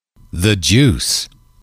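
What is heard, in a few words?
"the juice", English, male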